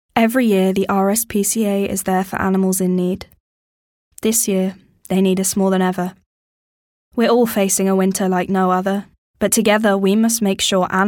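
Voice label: British English, IVR; GoLocalise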